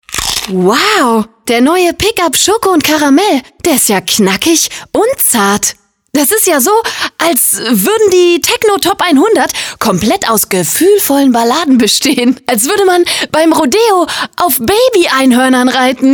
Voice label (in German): werbung; leibniz pickup